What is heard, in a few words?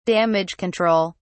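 "damage control", British English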